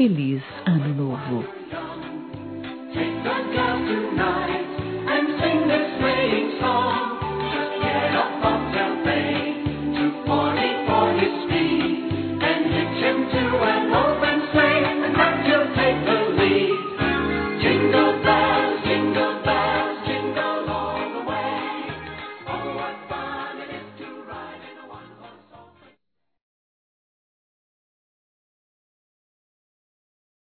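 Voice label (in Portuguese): Natal neutro feminino, Telemensagens; Natal e Ano novo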